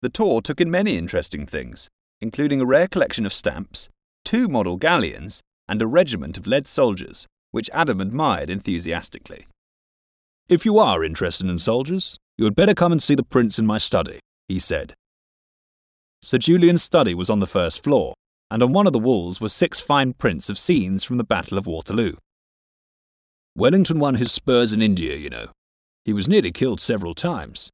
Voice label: Audiobook